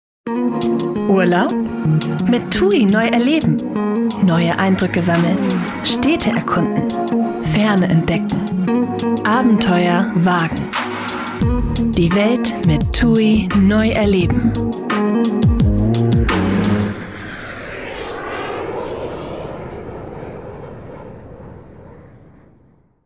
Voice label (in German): Werbung Reise